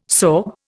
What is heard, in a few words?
"sorb", Indian